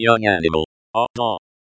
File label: "ạ⁴da", Cherokee; Young animal; ᎠᏓ